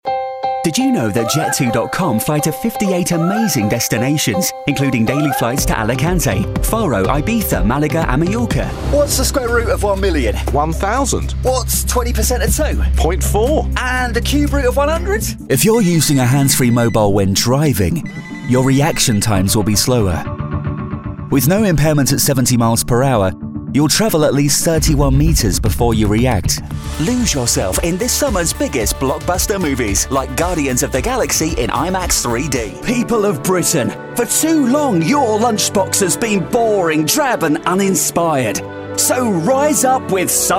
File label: male english commercial compilation; english southern accents